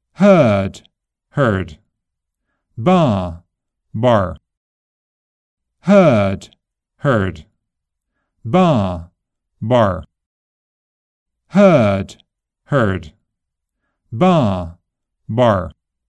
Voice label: american british heard bar